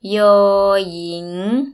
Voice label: yoor ying, Thai